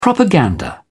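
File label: "propaganda", British English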